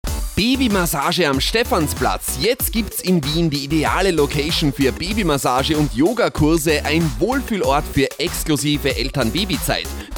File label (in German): Interview Radio; Krone Hit Radio Juni 2022; babymassage